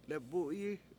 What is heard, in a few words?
lebU7yi they give me, Washo